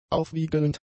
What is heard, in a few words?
"aufwiegelnd", German; adjectives